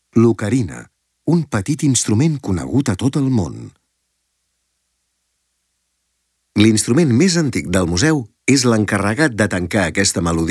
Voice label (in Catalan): audioguia catala; delamusica